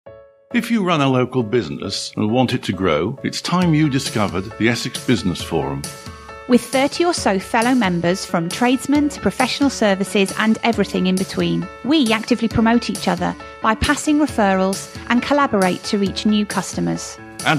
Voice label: Advert